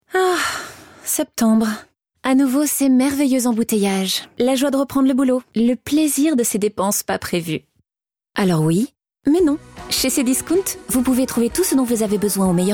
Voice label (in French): PUB Radio FR ironie; Cdiscount